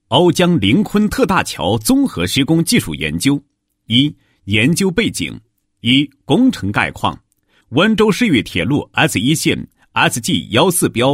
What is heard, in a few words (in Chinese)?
男, 桥梁工程; 瓯江灵昆特大桥综合施工技术研究